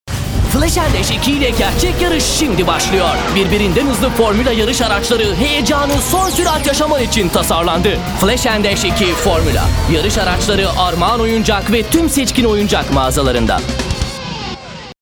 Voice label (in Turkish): türkçe seslendirme